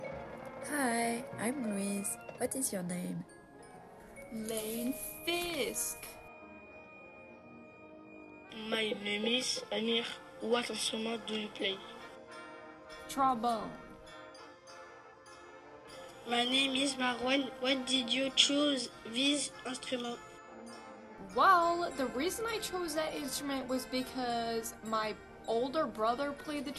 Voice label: Interview with music; brass band discovery in carlisle high school